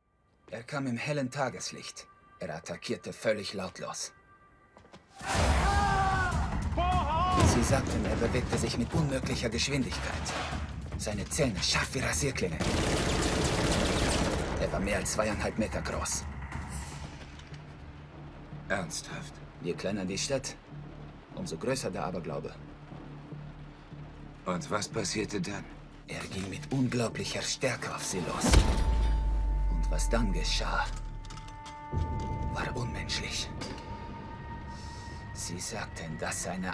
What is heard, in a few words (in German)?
Span Akzent